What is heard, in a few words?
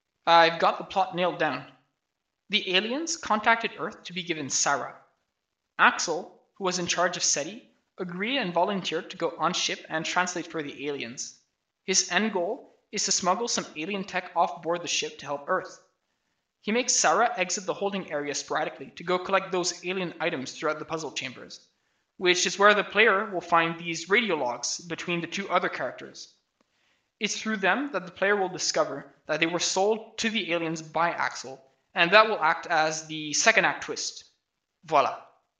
voice, narration